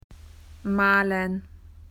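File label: "paint", Australian English; PictureDictionary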